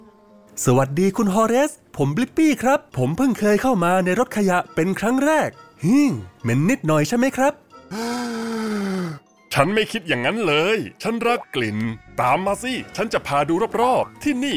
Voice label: Thai animation